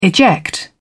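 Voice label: "eject", British English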